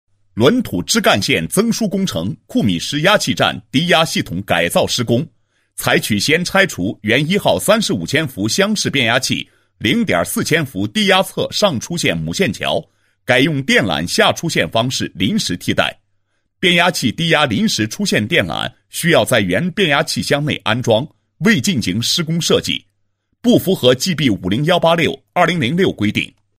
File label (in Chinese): 男 工程解说 低压系统改造施工解说